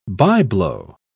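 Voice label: "by-blow", English, British English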